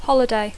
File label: "holiday", English